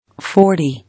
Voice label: "Forty –ties.", English